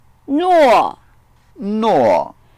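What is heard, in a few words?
"nuo4", Mandarin Chinese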